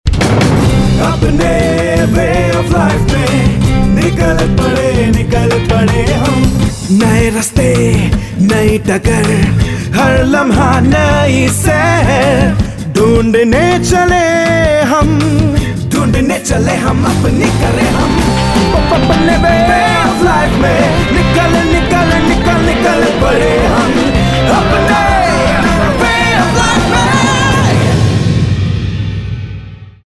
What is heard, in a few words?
male voice